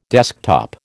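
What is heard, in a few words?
"desktop", American English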